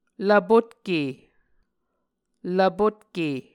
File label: "lvpotke", Muscogee